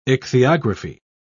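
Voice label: "ichthyography", British English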